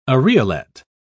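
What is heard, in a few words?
"areolet", English, British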